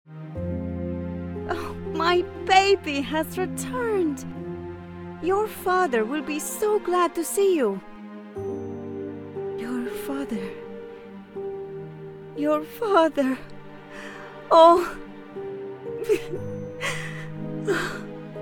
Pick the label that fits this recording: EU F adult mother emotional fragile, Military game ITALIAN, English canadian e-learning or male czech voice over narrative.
EU F adult mother emotional fragile